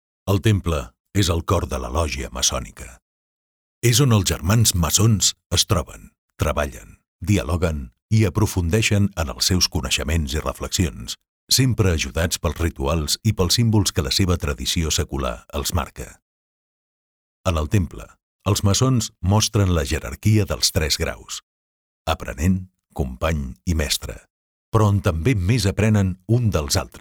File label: Catalan e-learning